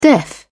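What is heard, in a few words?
"deaf", British English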